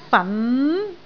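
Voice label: "fan2", Cantonese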